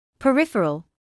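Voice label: "peripheral", British English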